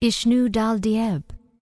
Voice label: Night Elf Female Standard NPC Greeting